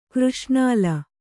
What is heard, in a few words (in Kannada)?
"ಕೃಷ್ಣಾಲ", Kannada